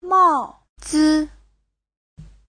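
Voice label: "màozi", Chinese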